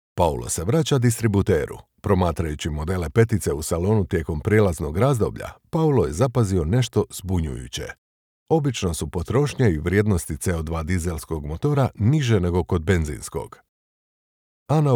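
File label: E-LEARNING Croatian; BMW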